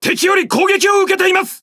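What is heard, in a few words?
Speech Pilots Fighter Under Attack, Japanese; World Of Warships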